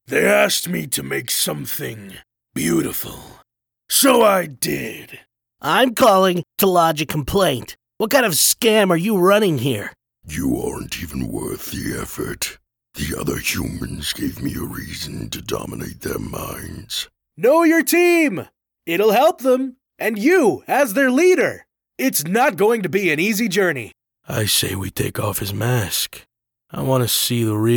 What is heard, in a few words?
Male Scratch Character